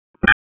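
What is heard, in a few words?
FIRE Operations, p25; FIRE OPS 2; wake p25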